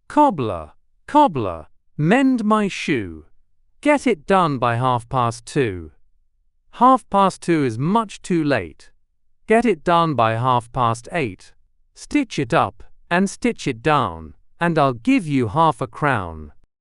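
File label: male British; Cobbler Cobbler Mend My Shoe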